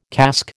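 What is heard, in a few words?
"casque", American English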